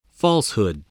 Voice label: "falsehood", voice

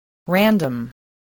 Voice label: "random", english

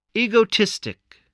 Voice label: "egotistical", English

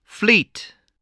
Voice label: "fleet", spelling

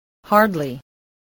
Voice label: "hardly", voice

